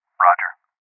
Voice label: Confirmation Male